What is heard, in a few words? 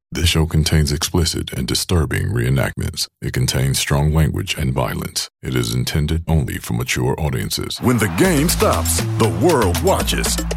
english american promos